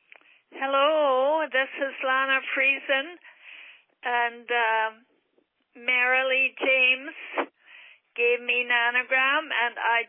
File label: Voicemail